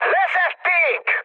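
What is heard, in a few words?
Hungarian aircraft destroyed mood high, speech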